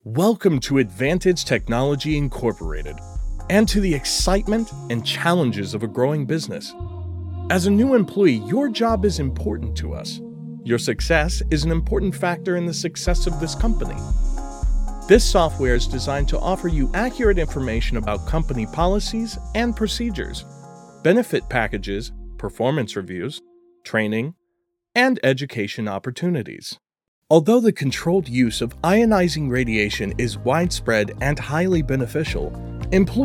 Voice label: Corporate Explainer